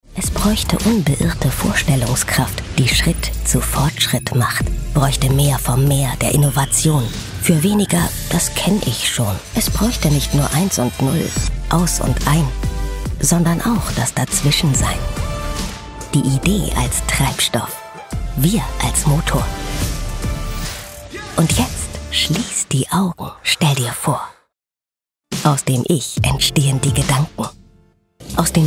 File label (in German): imagefilm; Hamburg; Muttersprache Deutsch; dmg mori